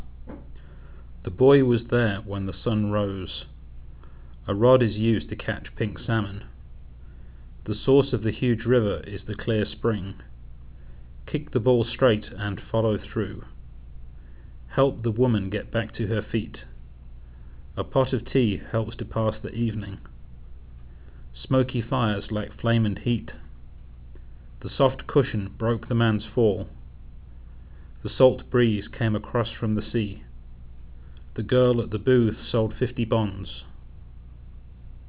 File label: british, open speech; uk